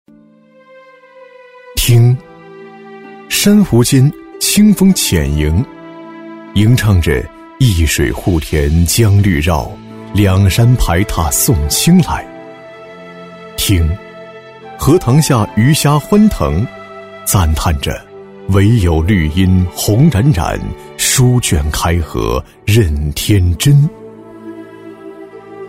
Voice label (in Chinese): 男 文旅宣传 优美舒缓; 《湖光山色“农业主题公园》; 男189-大气主流 舒缓抒情